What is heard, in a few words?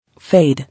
"Fade", English